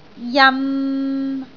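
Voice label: "jan3", Cantonese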